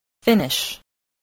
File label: "finish", spelling